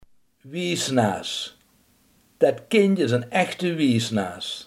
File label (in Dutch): "wijsneus", limburgse